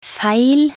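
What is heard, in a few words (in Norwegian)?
"feil", Norwegian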